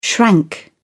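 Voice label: "shrank", British English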